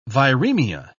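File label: "viremia", English, British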